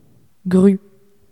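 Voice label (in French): "grue", French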